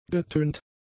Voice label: "getönt", german; adjectives

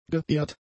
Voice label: "geehrt", German; adjectives

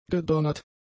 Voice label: "gedonnert", German; adjectives

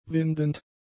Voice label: "windend", German; adjectives

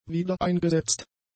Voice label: "wiedereingesetzt", German; adjectives

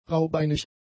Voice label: "raubeinig", German; adjectives

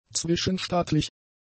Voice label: "zwischenstaatlich", German; adjectives

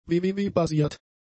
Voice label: "WWW-basiert", German; adjectives

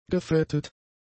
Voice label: "gefettet", German; adjectives

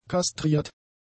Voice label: "kastriert", German; adjectives

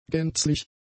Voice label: "gänzlich", German; adjectives

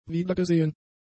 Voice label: "wiedergesehen", German; adjectives

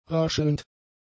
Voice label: "raschelnd", German; adjectives

